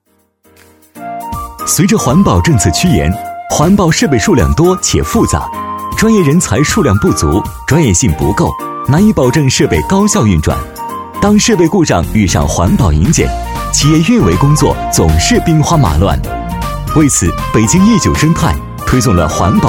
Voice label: 男 企业 明快 轻松; 环保易

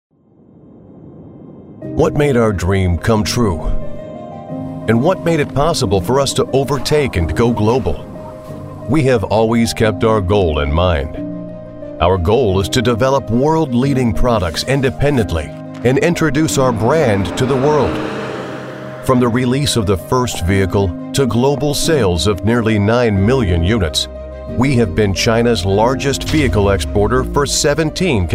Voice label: 外籍英语男 企业宣传 Corporate intimate

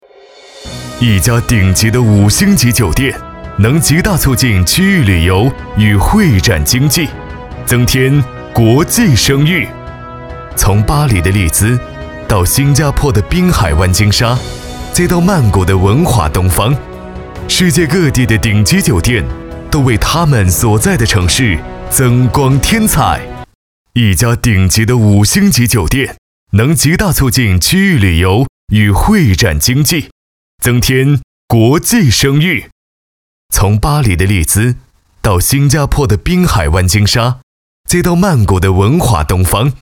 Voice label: 男 质感宣传; 晟云台

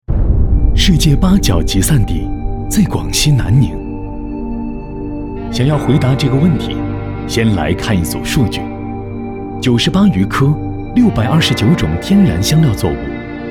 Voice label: 男,走心讲述,香料; 广西香料

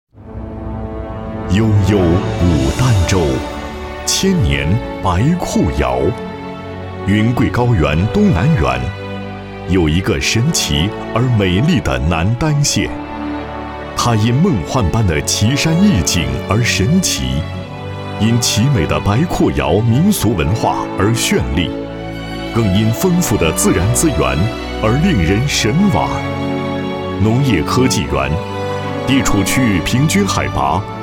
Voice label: 男 宣传片 农业科技; 广西农业科技

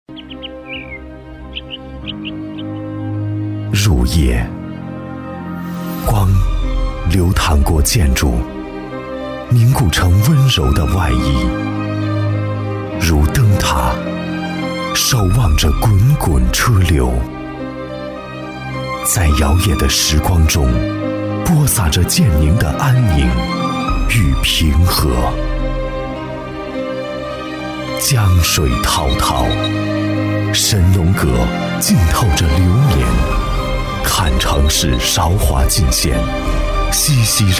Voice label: 城市宣传片 株洲

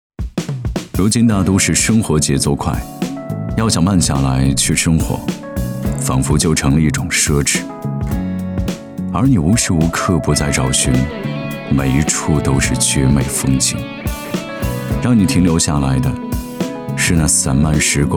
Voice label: 男 洋气走心 慢生活

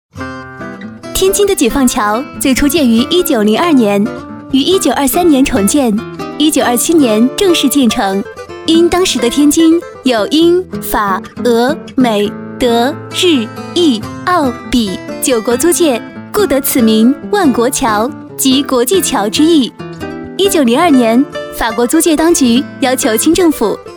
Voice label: 女 飞碟MG类型 天津的解放桥

